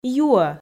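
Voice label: "юӧ", Komi, female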